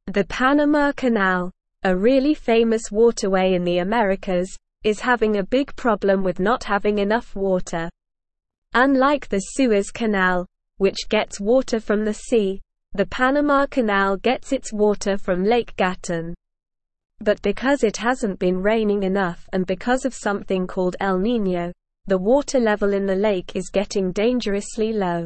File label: English Upper Intermediate SLOW Reading; Panama Canal Faces Critical Water Shortage Threaten